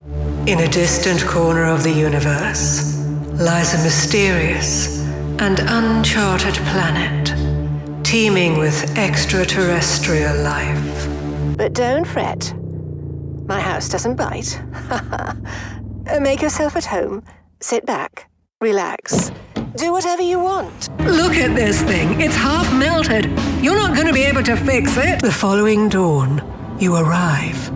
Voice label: english female multiple accents gaming compilation